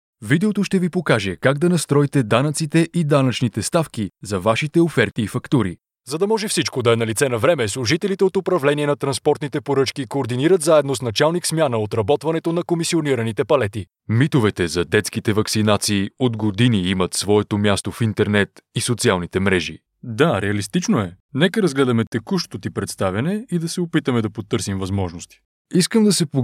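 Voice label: Bulgarian, male, e-learning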